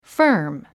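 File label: "firm", English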